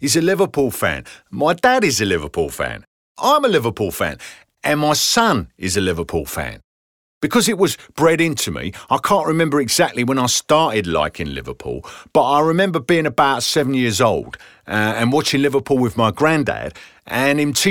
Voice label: Essex football supporter

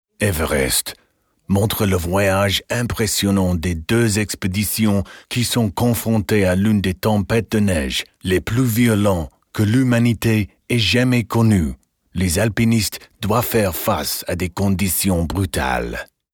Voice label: trailer FR; Everest

